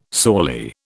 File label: "sorely", British